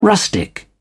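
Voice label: "rustic", British English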